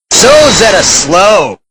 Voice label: slow; sozetta